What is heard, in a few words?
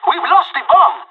spotted loose bomb, pirate; Counter Strike Model Pack; CS:GO